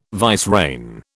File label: "vicereine", British